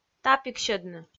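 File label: "тапиксьӧдны", Komi, female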